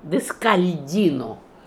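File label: "Deskaldino", pronunciations; RUS; Fourth Way; Beelzebub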